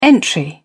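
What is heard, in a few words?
"entry", English, British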